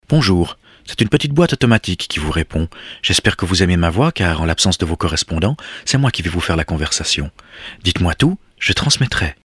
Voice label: FR